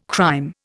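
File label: "crime", Indian English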